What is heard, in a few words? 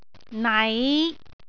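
"nai5", Cantonese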